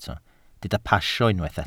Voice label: "pasio", Welsh